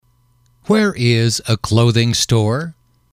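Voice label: where is a clothing store, male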